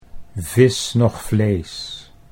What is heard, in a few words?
"vis noch vlees", Dutch